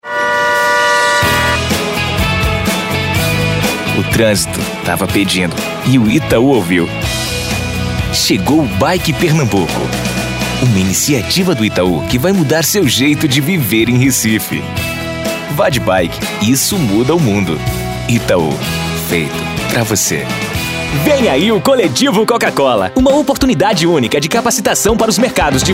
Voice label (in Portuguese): locutor